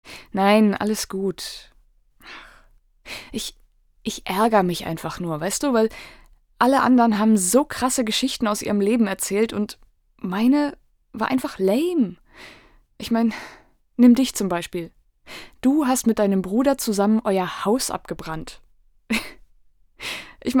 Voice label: junge Schauspielerin

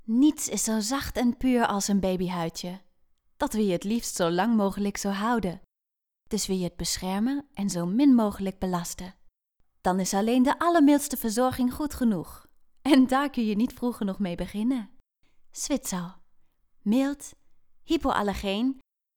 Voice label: Sprache Niederlaendisch

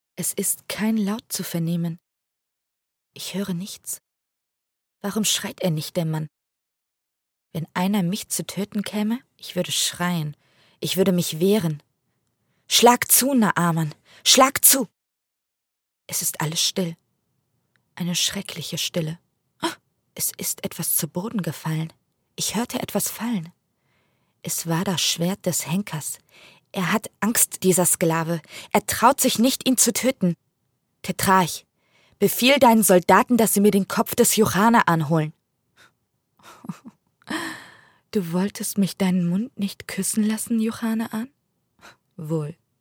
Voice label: Hörbuch

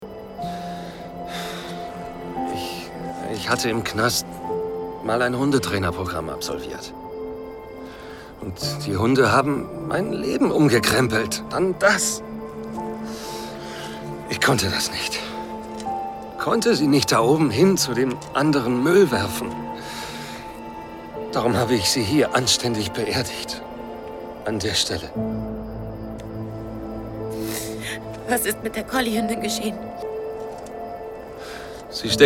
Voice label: Synchron Dog Lover verzweifelt; DE

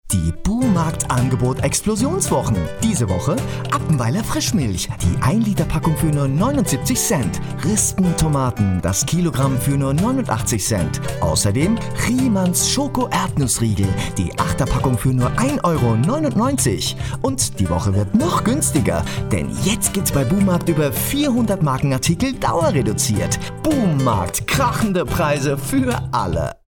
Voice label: werbespot

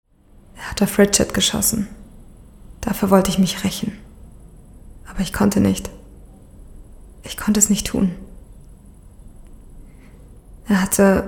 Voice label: Beispiel Drama

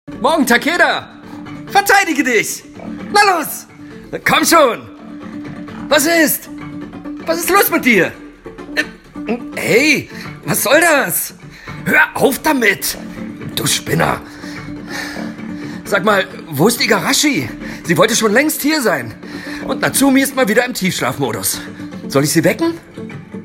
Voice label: animiert

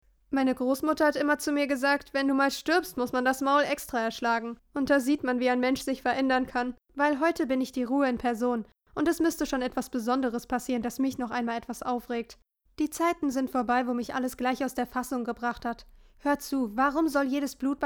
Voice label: Hörbuch

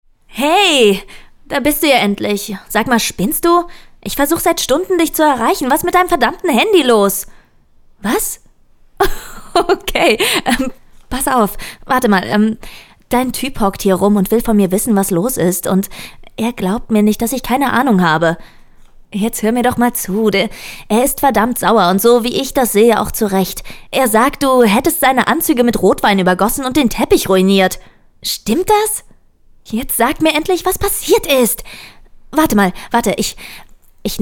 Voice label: Hoerspiel

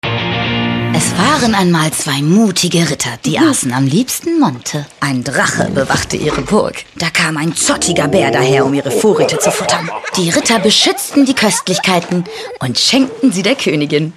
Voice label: TV Spot; Zott Monte

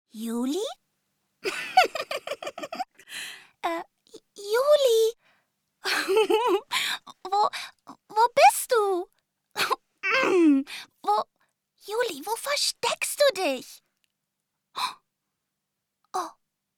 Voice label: Kleinkind Zeichentrick

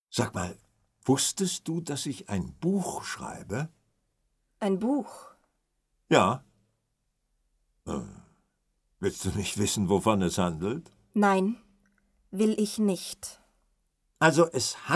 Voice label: Hoerspiel

